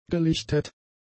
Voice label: "gelichtet", German; adjectives